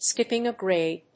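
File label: "skipping a grade", English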